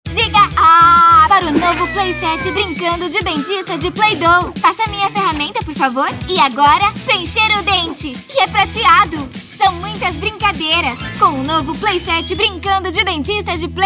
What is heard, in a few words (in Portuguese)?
Infantil